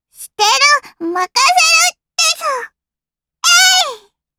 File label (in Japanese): System Voice 少女 ゴミ箱を空にする